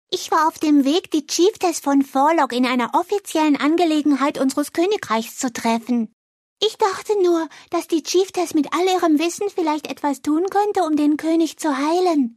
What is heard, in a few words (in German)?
zeichentrickcharge